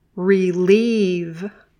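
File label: "relieve", English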